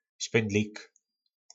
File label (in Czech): "Špyndlik", nářečí Po našimu; Špendlík